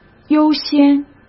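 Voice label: "优先", Mandarin Chinese; chinese flashcards; hsk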